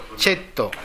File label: "chetto", minamiyamagata